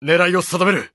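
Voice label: Japanese Neutral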